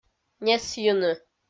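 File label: "несйыны", Komi-Zyrian, female; kpv audio dict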